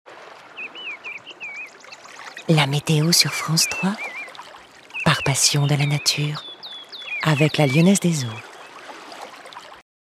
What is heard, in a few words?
french